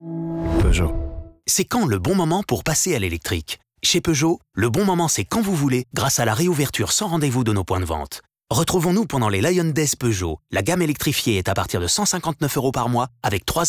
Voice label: mix radio; lion days